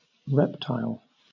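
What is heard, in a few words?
"reptile", British English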